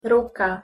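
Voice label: "ruka", Slovak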